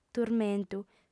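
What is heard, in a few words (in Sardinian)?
"turmentu", female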